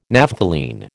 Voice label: "Naphthalene", American

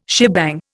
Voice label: "shebang", Indian

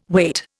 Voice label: "wait", Indian

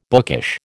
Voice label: "bookish", American

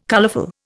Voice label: "colourful", Indian

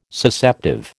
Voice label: "Susceptive", American

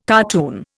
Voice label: "cartoon", Indian

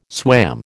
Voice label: "swam", American

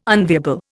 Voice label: "unviable", Indian

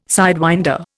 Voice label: "sidewinder", Indian

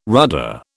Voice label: "rudder", British

